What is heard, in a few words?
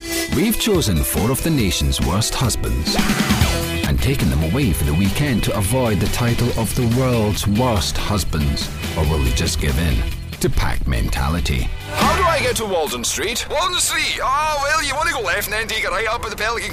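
British Scottish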